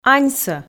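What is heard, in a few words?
"аньсӧ", Komi, female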